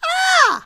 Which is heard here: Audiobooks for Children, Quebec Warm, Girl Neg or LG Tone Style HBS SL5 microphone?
Girl Neg